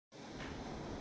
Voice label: voice message